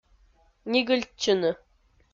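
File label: "нигыльтчыны", Komi, female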